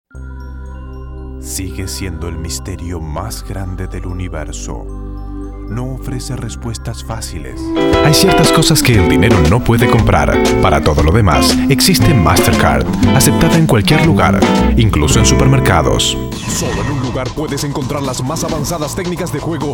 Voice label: spanish